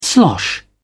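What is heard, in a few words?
"slosh", British English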